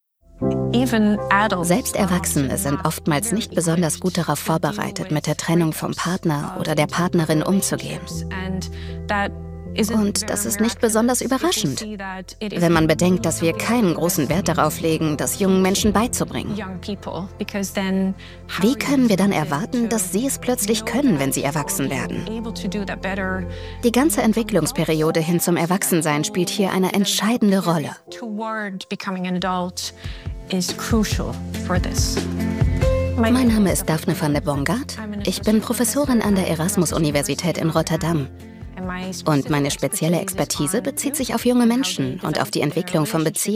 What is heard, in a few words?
voice over; arte; Muttersprache Deutsch